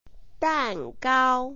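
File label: "dan gao", Mandarin Chinese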